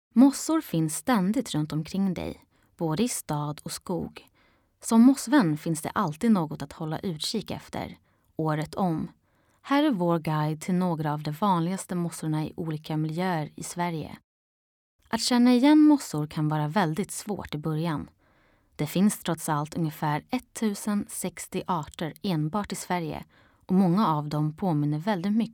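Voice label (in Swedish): Swedish, Narrative; GoLocalise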